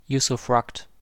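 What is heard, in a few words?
"usufruct", American English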